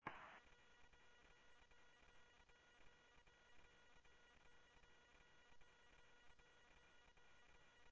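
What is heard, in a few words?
Sheriff Lake Patrol, scanner audio trunk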